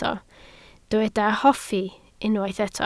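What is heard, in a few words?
"hoffi" Welsh, spoken word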